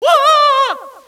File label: "whoaaa", Echo inside caves, underground; DS; ML BOWSERSINSIDE; Mario